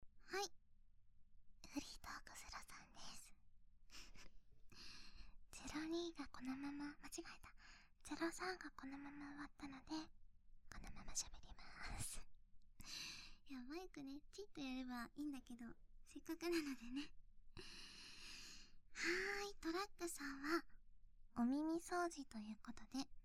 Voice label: FREE TALK, ASMR

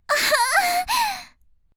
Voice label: h voice; おっぱい図鑑

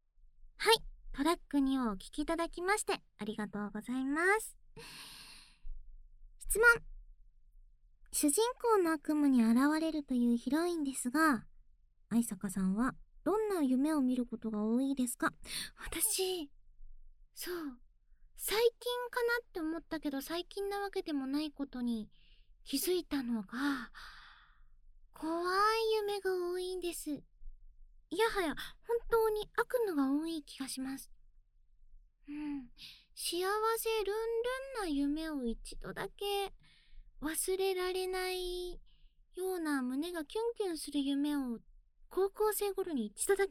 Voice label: FREE TALK, ASMR

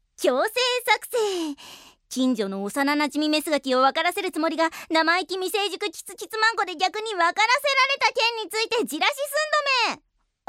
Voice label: FreeTalk, ASMR

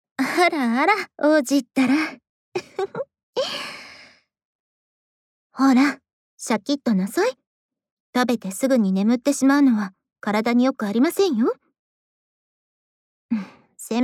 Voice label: LOVE Whisper, ASMR

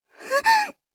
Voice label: h voice; おっぱい図鑑

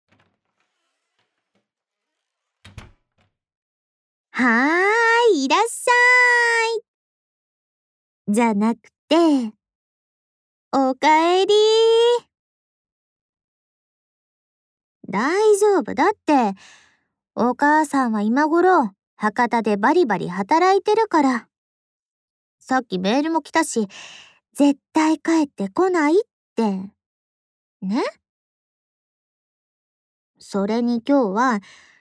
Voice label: Whisper

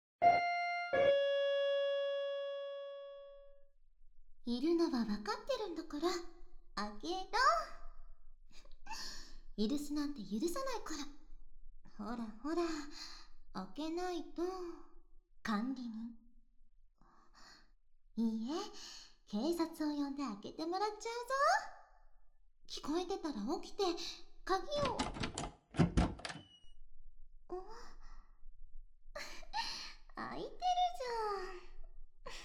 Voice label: kanbyou, ASMR

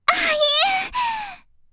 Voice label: h voice; おっぱい図鑑

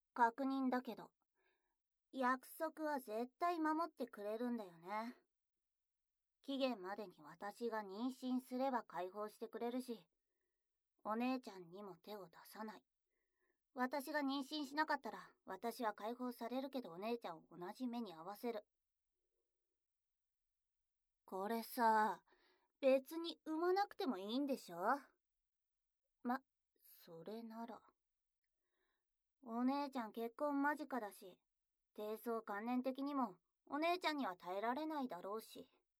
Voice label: imouto, ASMR

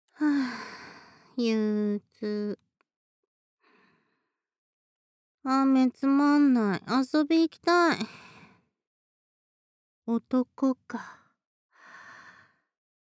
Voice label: Whisper, ASMR

